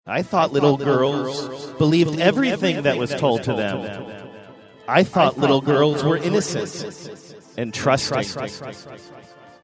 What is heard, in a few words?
saying i thought little girls believed everything echo reverb